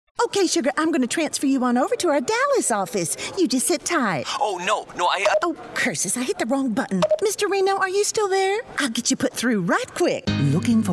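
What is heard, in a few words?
Characters Female, Rich, Solid, Natural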